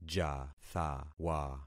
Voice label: "jathawa", concat syllables; Affixing experiment

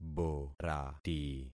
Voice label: "borati", concat syllables; Affixing experiment

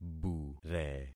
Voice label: "bure", concat syllables; Affixing experiment

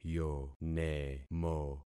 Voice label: "yonemo", concat syllables; Affixing experiment

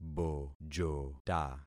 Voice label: "bojota", concat syllables; Affixing experiment

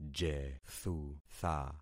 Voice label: "jethutha", concat syllables; Affixing experiment

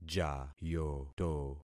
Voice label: "jayoto", concat syllables; Affixing experiment

